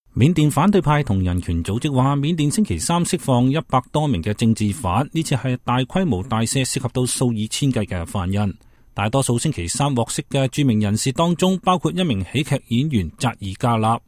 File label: cantonese; Burma